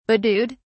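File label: "bedewed", English, British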